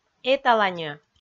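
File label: "эталаньӧ", Komi, female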